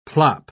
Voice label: "plop", pronounce

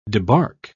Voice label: "debark", pronounce

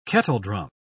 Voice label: "kettledrum", pronounce

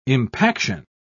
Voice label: "impaction", pronounce

